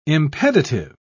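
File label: "impeditive", British English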